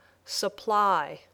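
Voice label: "supply", English word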